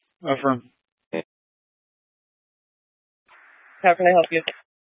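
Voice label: Police Dispatch, scanner audio recording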